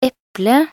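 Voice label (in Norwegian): "eplet", Norwegian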